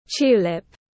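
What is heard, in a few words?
"Tulip", English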